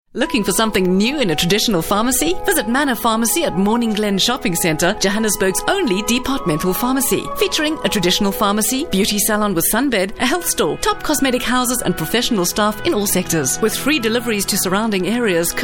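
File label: english South African female commercial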